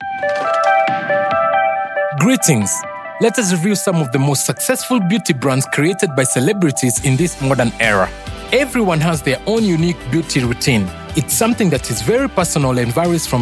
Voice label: kenyan voiceover natural